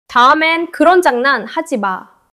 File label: neutral